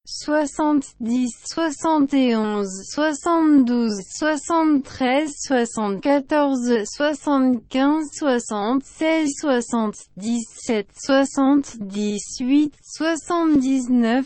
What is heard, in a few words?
french